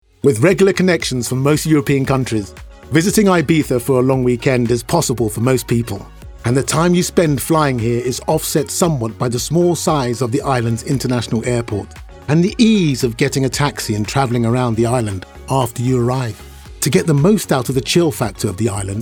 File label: Documentary